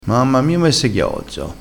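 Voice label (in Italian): "ghiozzo", vocabolario dialettale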